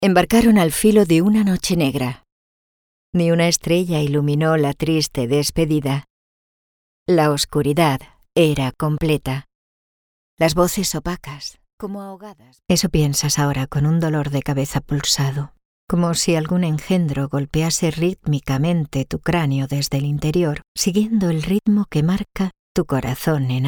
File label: spanish audiobooks